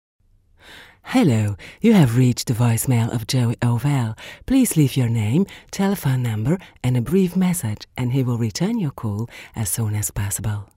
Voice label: french accent; foreign languages